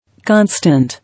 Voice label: "Constant", English